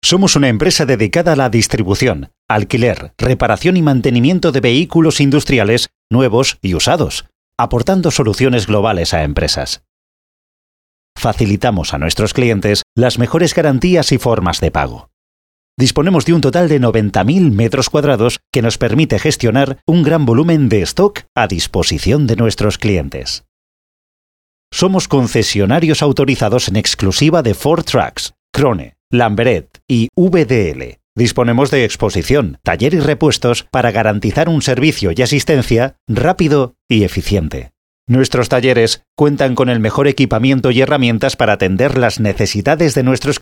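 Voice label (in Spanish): corporativo España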